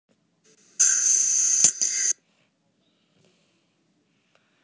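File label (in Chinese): "xu3yuan4", Mandarin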